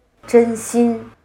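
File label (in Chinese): "真心", Chinese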